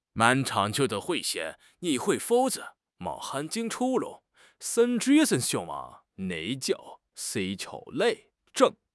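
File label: "sen1 lin2", Chinese; HSK4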